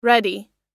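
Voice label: "ready", American English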